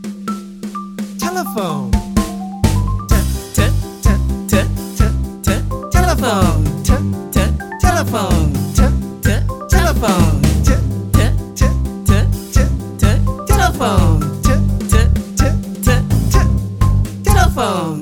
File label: T telephone